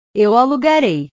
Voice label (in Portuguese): "eu alugarei", portugues